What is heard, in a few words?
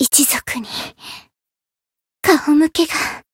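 Voice win barely, Japanese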